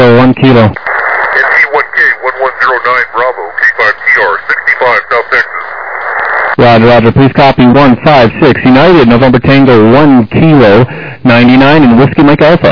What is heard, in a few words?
SSB USB 21MHz